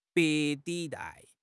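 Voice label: "憋", Mandarin Chinese; HSK6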